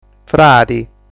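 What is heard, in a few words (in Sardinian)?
"Fradi", Sardinian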